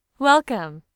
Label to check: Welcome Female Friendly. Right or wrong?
Right